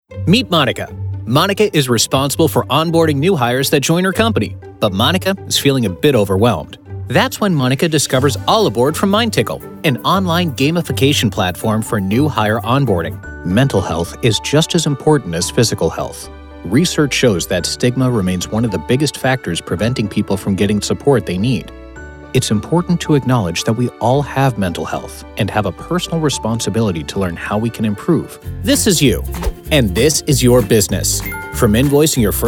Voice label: Explainer, voiceover